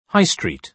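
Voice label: "high street", British English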